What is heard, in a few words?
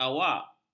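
"awak", Malay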